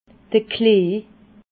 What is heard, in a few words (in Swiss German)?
"klee", alsace